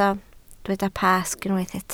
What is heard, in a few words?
"Pasg", Welsh